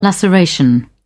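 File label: "laceration", British English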